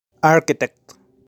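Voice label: "Architect", pronunciation; Profesiones; professions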